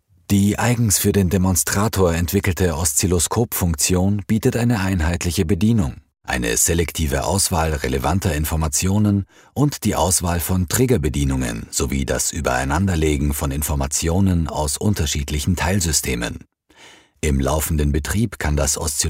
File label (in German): E-Learning Osziloskop technisch